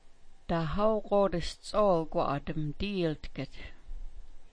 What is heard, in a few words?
"diiltk", smalgyax